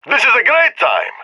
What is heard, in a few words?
cheer; Counter Strike; CS:GO; leet epic